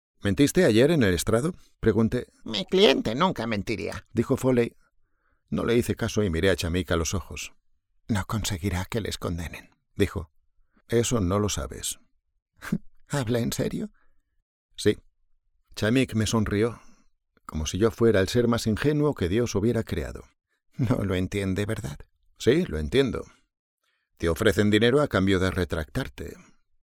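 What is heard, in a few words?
Spanish Spain male upbeat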